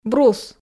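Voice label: "брус", Komi, female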